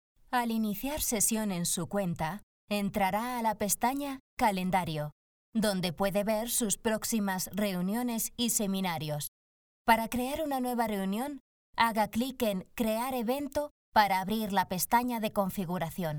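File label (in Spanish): e-learning, Spain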